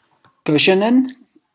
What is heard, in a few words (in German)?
Aussprache Göschenen